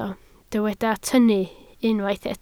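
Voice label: "tynnu" Welsh, spoken word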